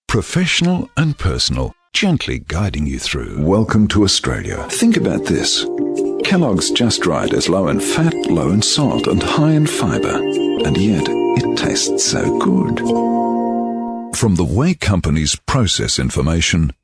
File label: Australian Male